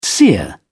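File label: "seer", British English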